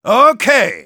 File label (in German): Engineer yes, de